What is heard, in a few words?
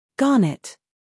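"scandal", American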